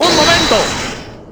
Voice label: "hold it", Spanish; Edgeworth